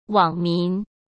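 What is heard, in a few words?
"网民", Mandarin Chinese; hsk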